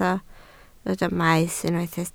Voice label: "maes", Welsh